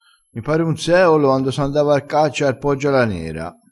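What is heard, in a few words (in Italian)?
"seolo", dialettale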